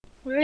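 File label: "ring", spoken word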